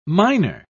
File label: "minor", pronounce